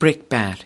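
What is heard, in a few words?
"brickbat", US English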